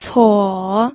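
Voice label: "cuo", pinyin, third tone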